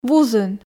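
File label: "вузын", Komi, female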